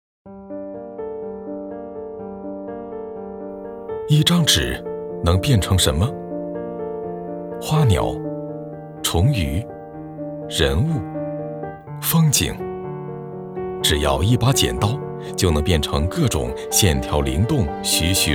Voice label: 纪录片 文化类 沉稳 叙事 讲述

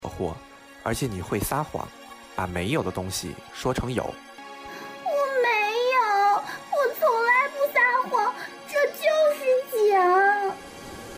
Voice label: 委屈

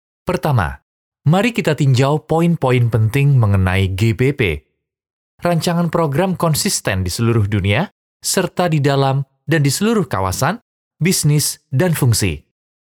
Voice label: 外籍印尼语男大气激情

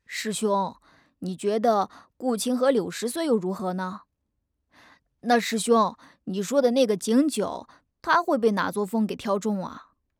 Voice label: 少年

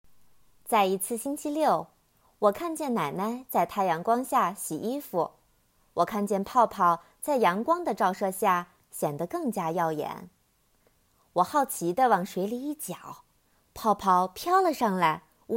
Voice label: 小作文配音

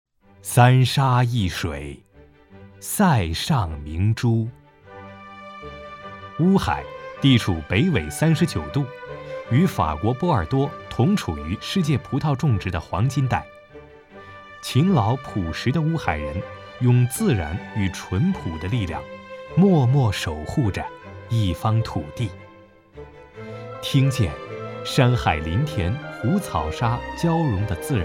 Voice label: 中文纪录片 葡萄自然美食男声; 乌海葡萄

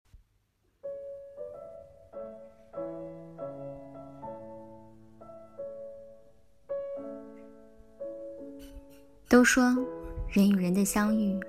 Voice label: 旁白

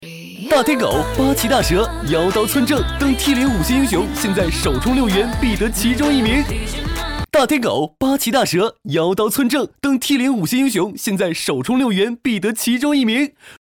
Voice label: 信息流; 阴阳师

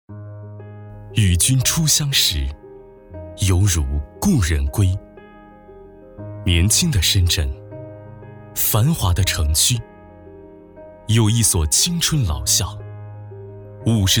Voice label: 厚重专题

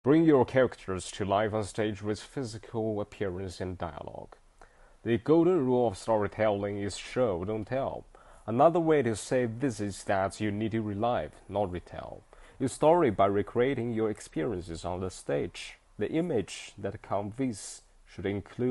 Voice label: 英文

